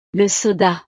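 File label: "le soda", French; snacks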